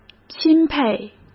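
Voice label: "钦佩", Mandarin Chinese; chinese flashcards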